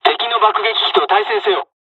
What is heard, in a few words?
dialogs japanese; War Thunder